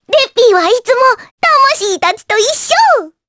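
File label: voice Victory, voices heroes, Japanese; Rephy; kingsraid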